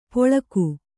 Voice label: "ಪೊಳಕು", kannada